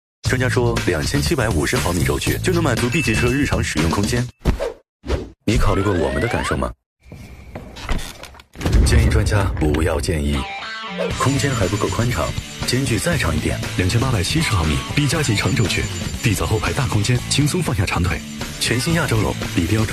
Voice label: 男 广告 汽车 时尚素人; 一汽丰田

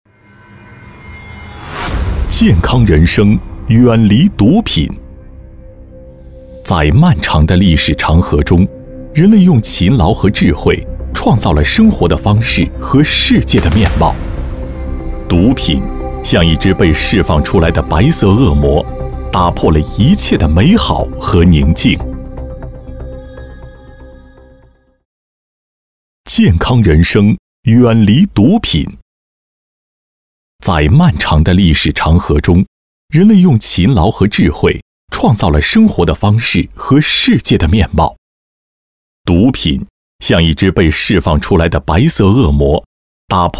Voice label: 男 专题 毒品

